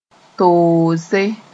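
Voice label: "toose", Mooré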